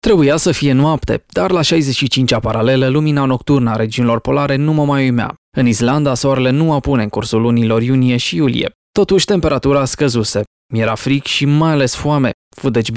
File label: audiobook